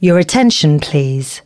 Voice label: female, announcements